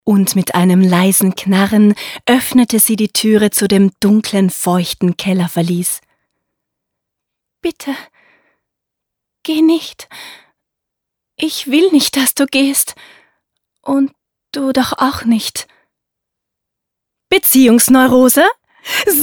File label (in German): Hörbuch